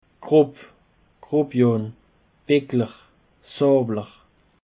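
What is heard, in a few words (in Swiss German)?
"grob", Alsatian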